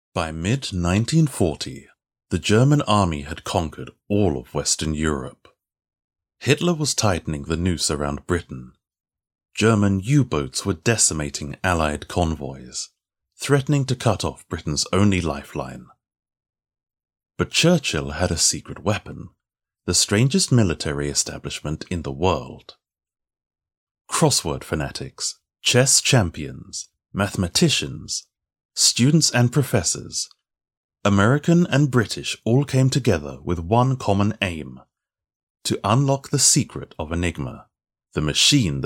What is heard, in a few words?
Adult Non Fiction